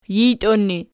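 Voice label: "jijtion" ergative, Archi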